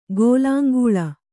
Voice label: "ಗೆಲ್ಲಂಗೊಳ್", kannada

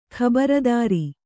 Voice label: "ಖಬರದಾರಿ", kannada

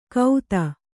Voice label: "ಕೌದ", kannada

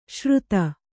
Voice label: "ಶೃತ", Kannada

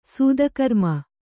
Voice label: "ಸತ್ಕರ್ಮಿ", Kannada

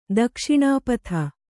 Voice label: "ದಕ್ಷಿಣಪತಿ", kannada

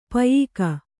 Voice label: "ಪಯ್ಕ", kannada

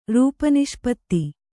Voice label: "ರೂಪನಿಷ್ಪತ್ತಿ", kannada

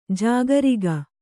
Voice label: "ಜಾಗರಿಗ", kannada

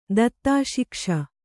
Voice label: "ದತ್ತಾಶಿಕ್ಷ", Kannada

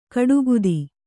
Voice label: "ಕಡುಗುದಿ", Kannada

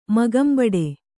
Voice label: "ಮೊಗಂಬಡೆ", kannada

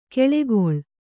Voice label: "ಕೆಳೆಗೊಳ್", Kannada

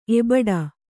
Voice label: "ಎಬಡಿ", Kannada

